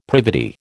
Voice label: "privity", American